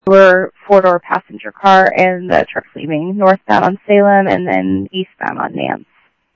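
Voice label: Mesa police department, scanner audio trunk; Mesa Simulcast; MESA PD 3 Red Mt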